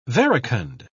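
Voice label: "verecund", British English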